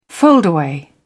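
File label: "foldaway", British English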